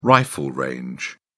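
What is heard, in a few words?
"rifle range", British English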